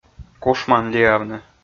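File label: "кушман лиавны", Komi, male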